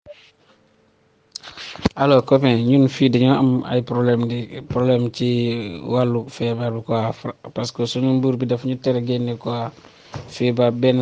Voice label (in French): wolof mess prévention covid; coronavirus